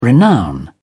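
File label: "renown", English, British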